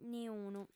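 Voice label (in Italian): "niunu", lingua sarda, fem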